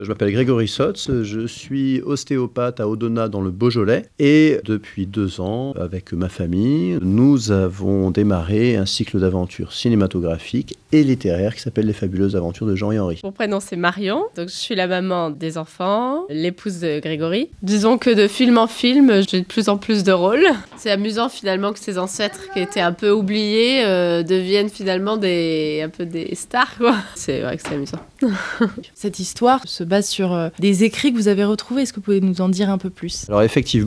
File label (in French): radio reportage; radio scoop